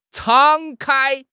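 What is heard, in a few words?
"敞开", Mandarin Chinese; HSK6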